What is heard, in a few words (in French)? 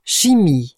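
"chimie", French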